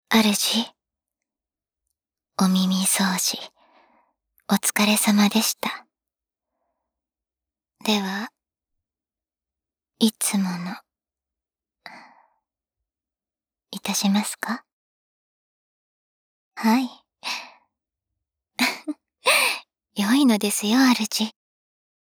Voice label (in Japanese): 手袋あり 最後左 声のみ, ASMR; 効果音なしver